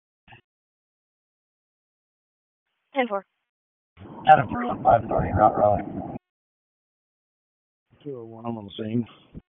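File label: Police Northeast District Disp, radio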